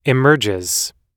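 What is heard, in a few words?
"emerges", American English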